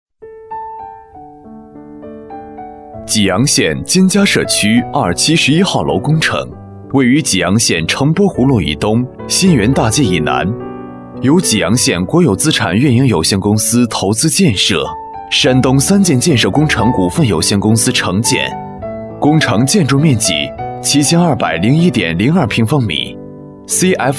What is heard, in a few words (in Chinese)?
男 工程汇报